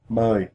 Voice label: "ten", vietnamese